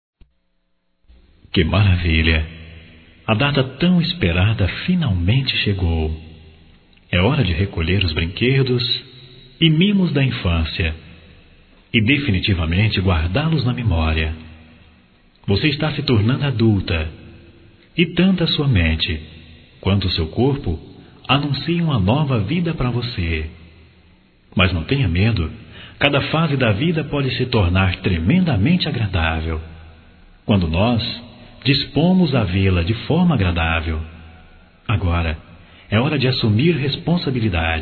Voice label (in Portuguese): Aniversário 15 Anos Masculino; Aniversarios; Telemensagens 2017